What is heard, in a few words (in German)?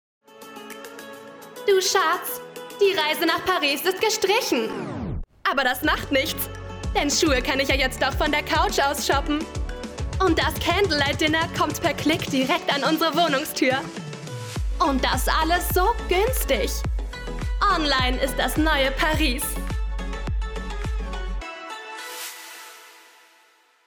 Werbung